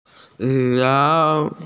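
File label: "xiong2", Mandarin Chinese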